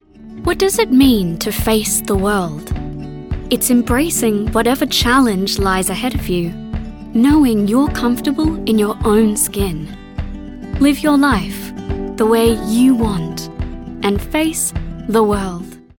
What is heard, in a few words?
female English Australian accent commercial; irish southern